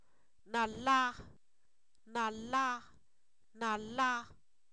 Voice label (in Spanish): amargo, Zapoteco, san miguel albarradas; adjetivos